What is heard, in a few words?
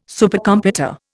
"Supercomputer", Indian